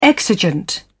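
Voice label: "exigent", British English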